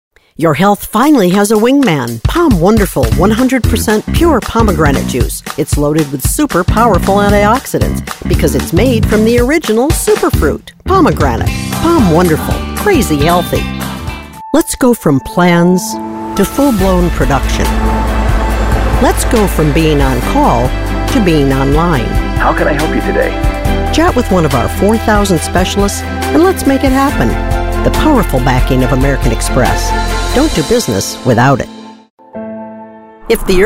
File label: commercial